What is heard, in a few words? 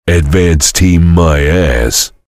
soldier jeers